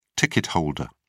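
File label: "ticket holder", British English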